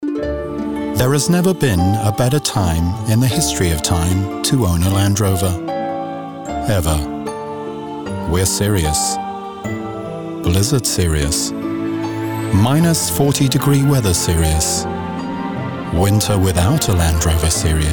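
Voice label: English UK, Werbung, Variabel; Fremdtake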